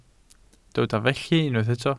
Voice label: "felly", Welsh

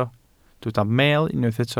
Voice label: "meel", Welsh

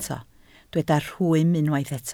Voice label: "rhwym", Welsh

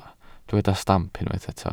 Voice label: "stamp", welsh

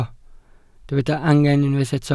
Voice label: "angen", Welsh

